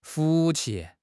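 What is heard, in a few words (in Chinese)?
"福气", Chinese; HSK6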